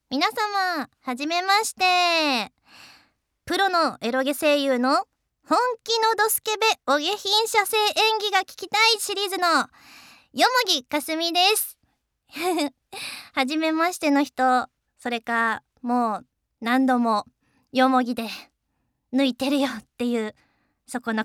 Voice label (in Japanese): フリートーク